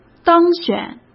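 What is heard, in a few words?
"当选", Mandarin Chinese; chinese flashcards; hsk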